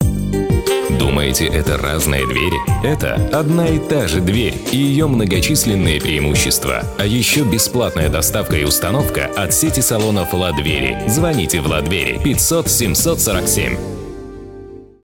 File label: male russian commercial; russian and ukrainian